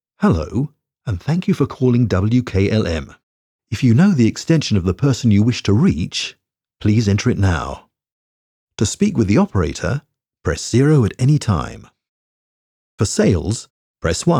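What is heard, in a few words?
english british interactive voice response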